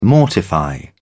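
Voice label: "mortifying", British English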